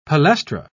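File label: "palestra", British English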